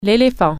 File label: elephant, french